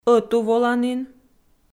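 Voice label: "ӧтуволанін", Komi, female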